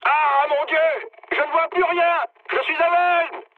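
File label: searchlite, mood high, French; War Thunder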